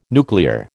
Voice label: "nuclear", American